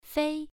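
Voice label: "fei", Mandarin Chinese, first tone, pronunciation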